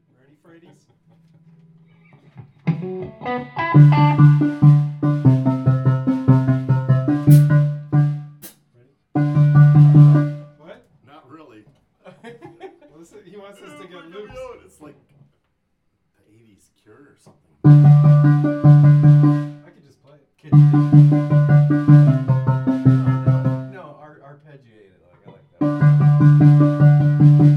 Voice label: Us Talking